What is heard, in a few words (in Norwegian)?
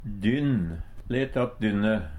"dynn", Numedalsmål; Substantiv hankjønn